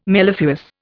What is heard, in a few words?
"mellifluous", Indian